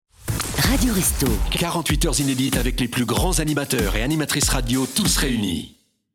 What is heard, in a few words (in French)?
radio; restos ANIMATEURS